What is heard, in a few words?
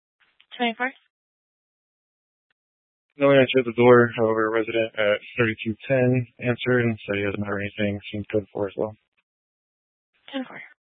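Tempe police department, scanner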